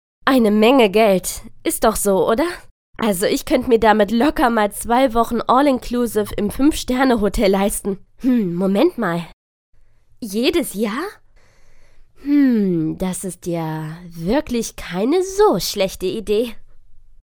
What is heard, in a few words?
german female